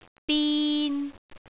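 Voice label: "bin3", Cantonese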